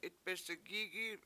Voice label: "frying pan", Washo; itbesigIgi7